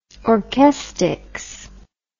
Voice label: "orchestics", English word